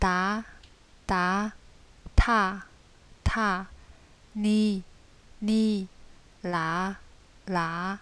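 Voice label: consonants t, yancheng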